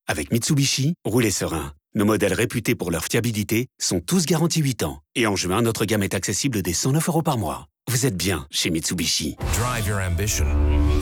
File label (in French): SPOT RADIO; MITSUBISHI; SPOT GAMME OFFRE NATIONAL; transports en commun